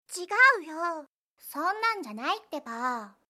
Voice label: anime, Irritated & Angry Phrases from Anime Girls